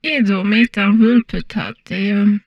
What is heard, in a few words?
humanoid portrait female; Stellaris